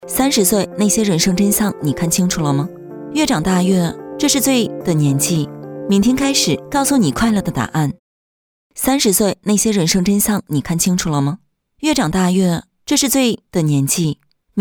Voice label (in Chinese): 女 独白 文案